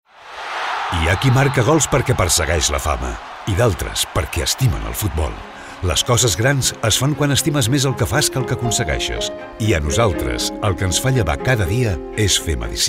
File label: male catalan health comercial; ideasonora